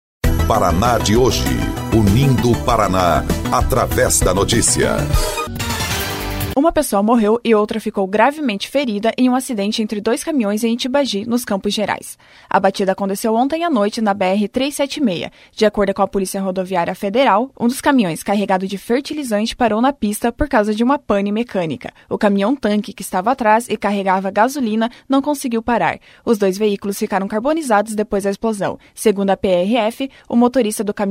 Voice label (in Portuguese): BOLETIM; Caminhão explode em batida e mata motorista em Tibagi